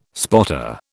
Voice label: "spotter", British